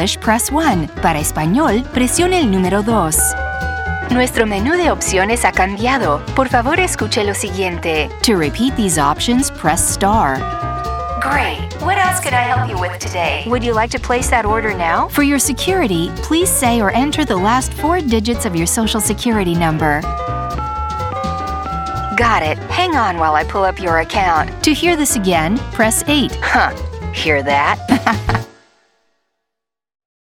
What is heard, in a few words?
telephony